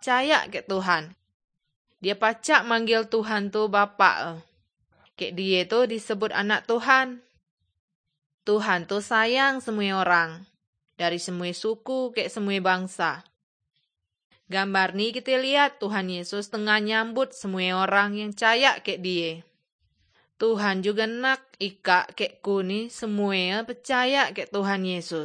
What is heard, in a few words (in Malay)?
kabar baik good news malay bangka